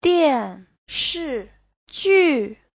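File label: "diànshìjù", Mandarin Chinese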